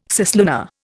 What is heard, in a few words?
"cislunar", Indian